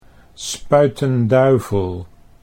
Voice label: "Spuyten Duyvil", Dutch